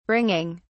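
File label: "bringing", British English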